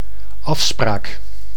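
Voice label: "afspraak", Dutch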